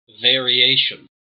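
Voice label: "variation", US English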